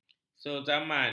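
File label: "sezaman", Malay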